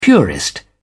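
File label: "purist", British English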